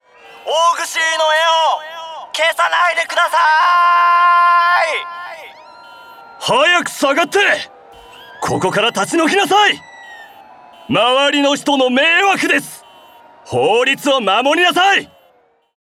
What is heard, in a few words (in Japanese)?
キャラクター 屈強な警察官風